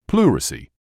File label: "pleurisy", English